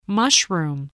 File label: "mushroom", voice